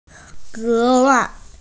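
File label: "especially", Mandarin; ge2wai4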